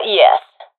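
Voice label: affirmation, professional female; vo; agents; Source Model Packs; Counter Strike Model Pack; CS2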